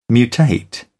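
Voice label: "mutate", British English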